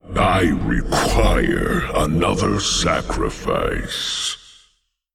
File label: Male Old God Bark